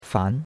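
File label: "fan", Chinese, second tone; Cours de Chinois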